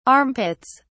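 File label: "armpits", British English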